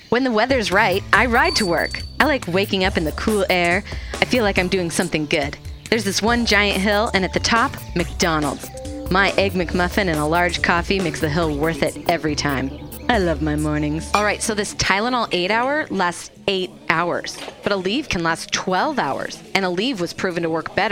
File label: USA